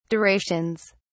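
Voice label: "durations", British English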